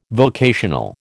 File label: "vocational", American